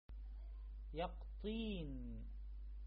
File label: Pumpkin in arabic